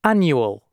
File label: "Annual", announcements male